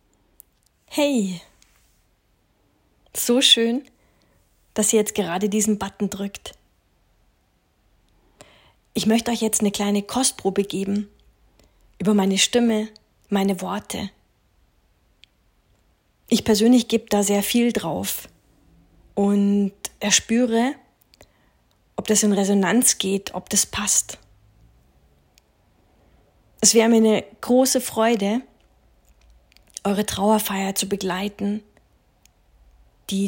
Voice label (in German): Sprachprobe Freie Rednerin; Muenchen und Umland Bayern und ueberall